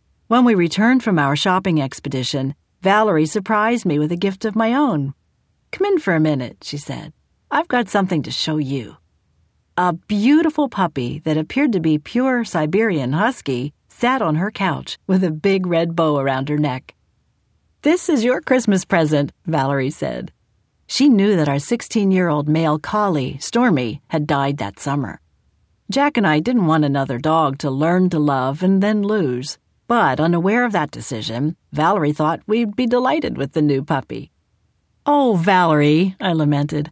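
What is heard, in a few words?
female nonfiction biography, narrator; nonficbio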